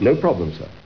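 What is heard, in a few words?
no problem sir, Male voice, "No problem, Sir."; replacement event sounds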